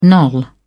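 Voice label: "knoll", English, British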